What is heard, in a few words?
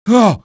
shock, male